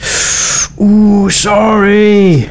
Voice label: Sorry, male; svencoop

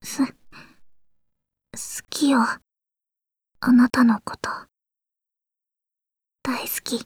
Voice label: chitchat, voice commands; svencoop; Blanc

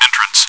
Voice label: chitchat, bot, voice commands; svencoop

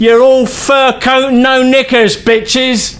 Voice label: Taunt, male; svencoop

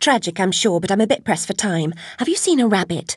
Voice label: chitchat; svencoop; Alice

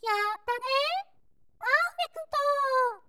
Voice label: cheer, voice commands; svencoop; Miku

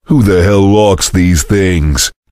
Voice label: chitchat; svencoop; voice commands; Duke

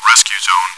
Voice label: chitchat, bot, voice commands; svencoop

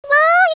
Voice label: run away, voice commands; svencoop; Miku

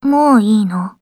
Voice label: run away, voice commands; svencoop; Blanc

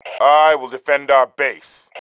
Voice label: defending base, male

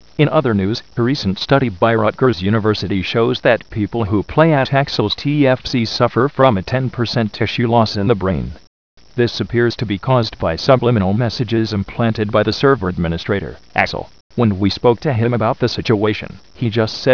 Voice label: tv report; tfc; riot

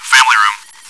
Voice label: chitchat; svencoop

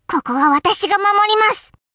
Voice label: taunt, voice commands Anime Loli; svencoop

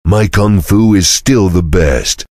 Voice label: cheer; svencoop; voice commands; Duke

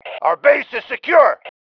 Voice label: base secure, MALE

